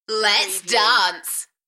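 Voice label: UK female, Enthusiastic, DJ vocals; Lets dance; beanstalk audio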